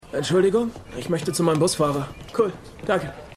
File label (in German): Jugendlicher; New Amsterdam